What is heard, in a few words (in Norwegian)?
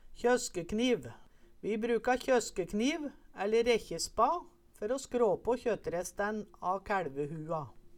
"kjøskekniv", Numedalsmål